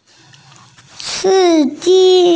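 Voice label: "si1ji1", Chinese, female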